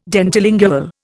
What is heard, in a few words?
"dentilingual", Indian